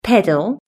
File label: "pedal", british english